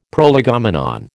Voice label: "prolegomenon", American

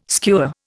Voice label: "skua", Indian

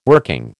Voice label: "working", American

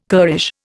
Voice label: "currish", Indian

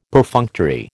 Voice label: "Perfunctory", American

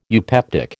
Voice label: "eupeptic", American

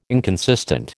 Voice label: "inconsistent", American

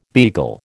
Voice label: "beagle", American

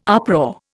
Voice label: "uproar", Indian

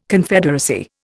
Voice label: "Confederacy", Indian

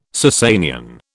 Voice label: "Sassanian", British

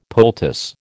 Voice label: "poultice", American

